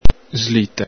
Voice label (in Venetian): "slite", Veneto